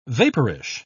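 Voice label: "vaporish", British English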